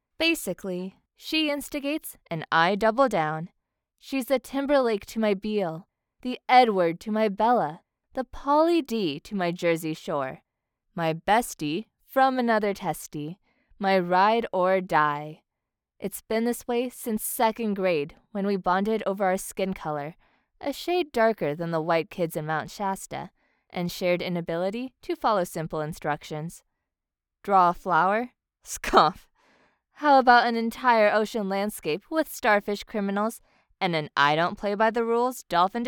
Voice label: Narration Sample